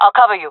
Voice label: covering friend, fem; player vo jungle fem

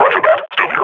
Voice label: idle, grunt; Half Life Model Pack

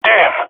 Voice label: scared, jungle male epic; Source Model Packs; Counter Strike Model Pack; CS2

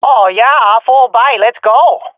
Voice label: request spend, swat fem; Counter Strike Model Pack; CS:GO

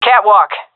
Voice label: loc catwalk, seal fem; vo; Counter Strike Model Pack; CS:GO

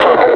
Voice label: "tunnel", suppressor; Half Life Alyx; combine

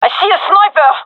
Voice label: sees sniper, gendarmerie fem; vo

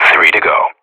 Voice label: radio bot kill count, vo professional

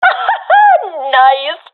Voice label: cheer, professional fem; vo; Counter Strike Model Pack; CS:GO

